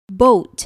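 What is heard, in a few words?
"boat", English word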